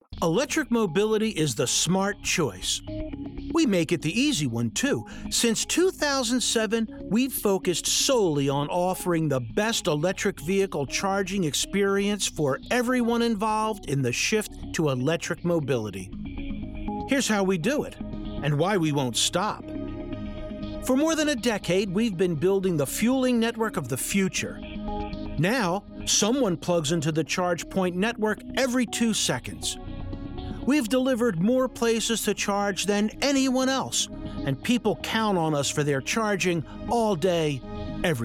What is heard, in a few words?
Corp Narration; ChargePoint